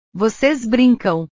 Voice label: "voces brincam", portugues; Ustedes juegan

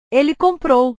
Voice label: "ele comprou", portugues; comprar; portugues/verbos

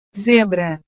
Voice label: "zebra", portugues

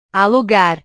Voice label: "alugar", Portuguese

